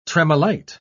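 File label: "tremolite", British English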